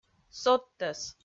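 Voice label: "содтӧс", Komi-Zyrian, female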